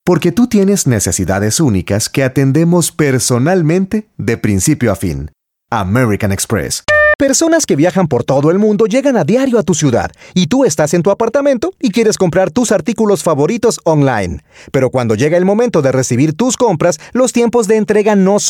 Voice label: spanish voice over male commercial